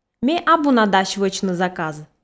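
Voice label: Komi sentences, female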